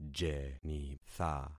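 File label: "jenitha", concatenated syllables; Affixing experiment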